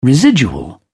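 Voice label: "residual", British English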